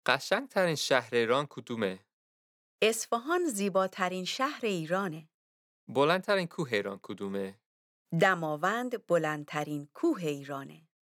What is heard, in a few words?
Dialogue lesson Farsi